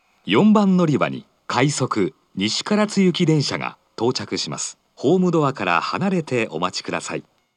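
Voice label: approaching Nishikaratsu, station announcement, Fukuoka city subway; 接近-快速西唐津行; 福岡市地下鉄 空港線・箱崎線 中洲川端駅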